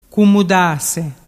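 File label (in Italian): "cumudase", Vernazzese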